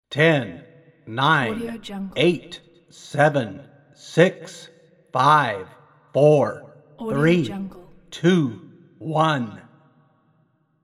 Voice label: countdown ten to one indoor stadium

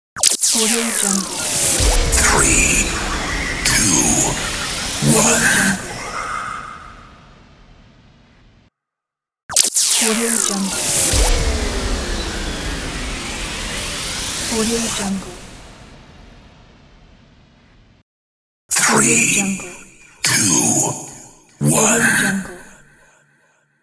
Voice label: epic male countdown

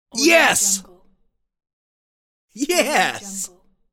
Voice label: male saying victory yes

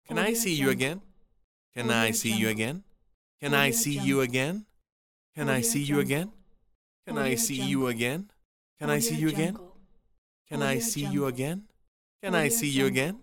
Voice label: male asking can i see you again; medusamusiclab

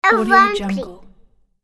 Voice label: toddler phrase

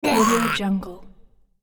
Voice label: cartoon character angry

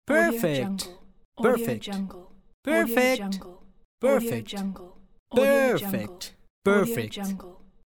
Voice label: teenage boy says perfect pack

